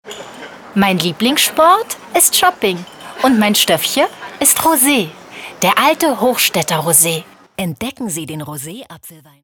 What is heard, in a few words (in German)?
Radiospot; Alter Hochstaedter Rose